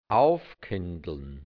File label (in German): "aufkindln", hianzisch, Burgenlan